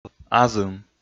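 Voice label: "азым", Komi, male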